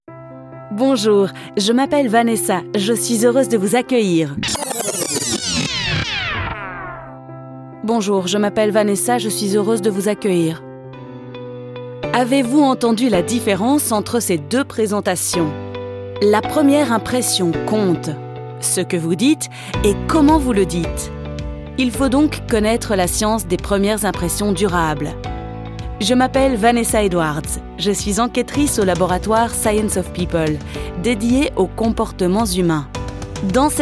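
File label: French eLearning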